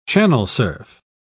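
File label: "channel-surf", British English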